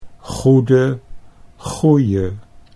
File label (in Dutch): goede goeie, Dutch